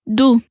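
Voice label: "du", Kurdish, female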